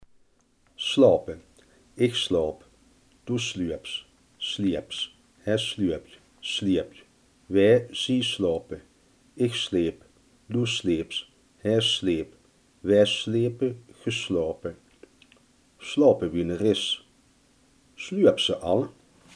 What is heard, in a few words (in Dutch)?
"slapen", Limburgs